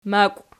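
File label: "makw" nominative, Archi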